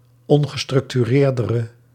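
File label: "ongestructureerdere", Dutch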